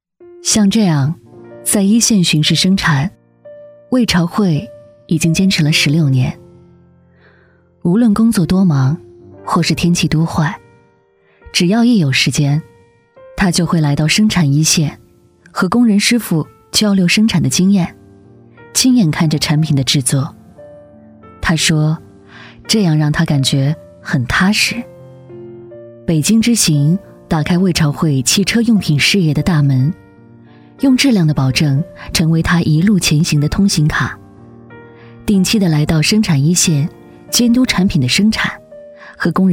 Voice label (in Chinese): 女 平淡叙述